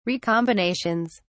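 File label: "recombinations", British English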